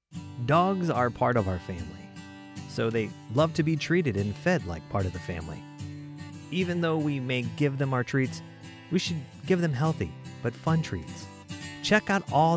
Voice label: Natural Friendly Male VO